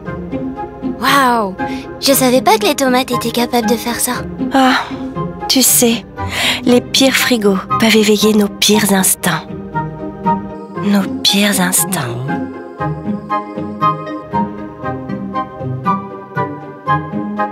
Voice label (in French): pub, jeune mixte; SAMSUNG; bbtomate